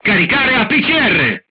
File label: voice message load APCR, crew tanks italian